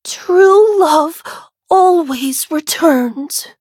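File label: defeat, English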